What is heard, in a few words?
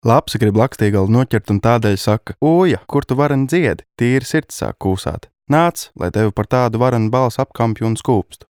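Latvian; lv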